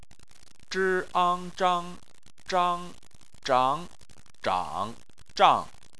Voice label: "zhang", chinese